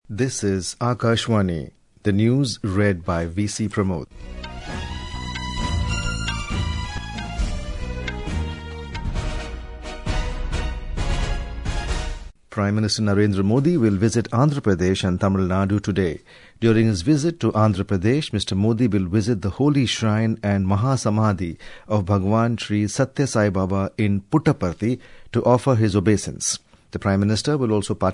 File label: English; Larsen and Toubro Limited for acquisition of 3 cadet training ships